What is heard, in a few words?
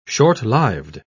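"shortlived", British English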